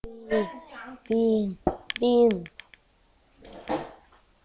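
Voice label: "beam", spoken word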